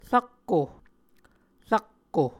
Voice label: "rakko", Muscogee; Words with "kk"